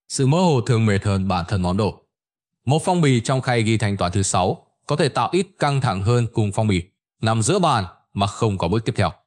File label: narration